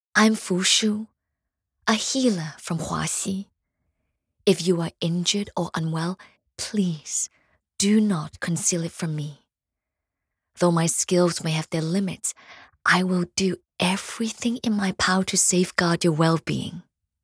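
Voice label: voice English; Fushu; Baiheng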